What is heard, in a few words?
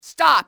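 "stop", enemy, english, female; XBOX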